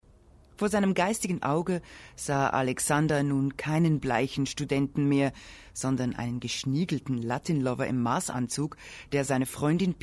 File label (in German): hoerspiel ausschnitt; tantra fuer anfaenger